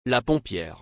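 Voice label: "La pompière", French; آتش نشان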